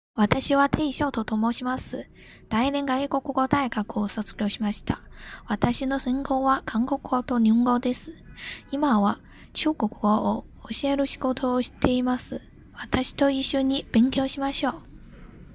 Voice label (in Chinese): 日语 自我介绍